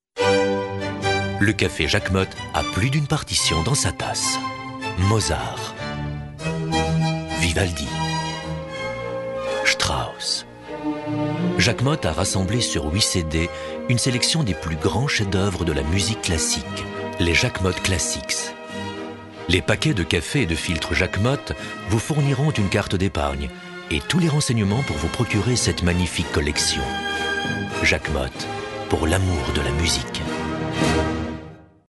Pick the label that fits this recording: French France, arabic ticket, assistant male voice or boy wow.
French France